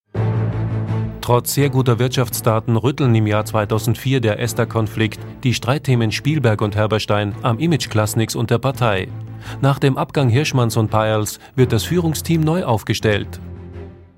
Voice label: Historical Doc